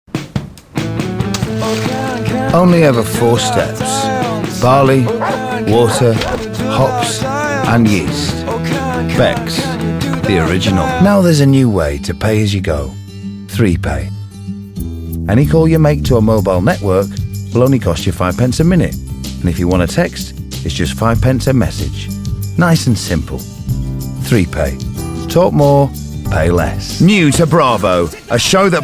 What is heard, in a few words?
English UK